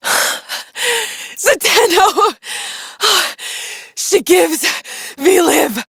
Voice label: Villagers Fem en